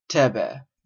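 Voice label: "thebe", Canadian English; pronunciations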